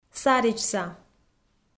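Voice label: "саридзса", Komi, female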